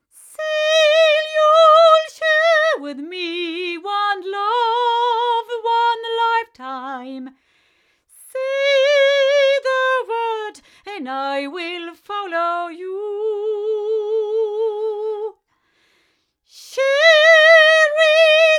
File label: female; CT Soundstage Image; microphones; Crowley Tripp